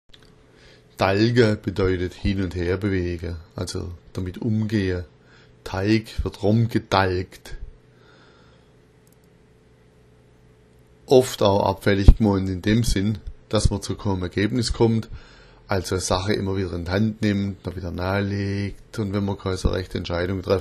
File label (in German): talga, schwaebisch